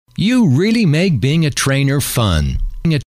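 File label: you really make being a trainer fun, male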